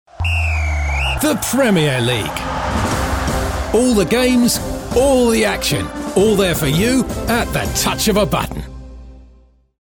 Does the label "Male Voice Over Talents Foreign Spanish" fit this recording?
No